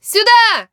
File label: attack, human woman fight; Stalker Anomaly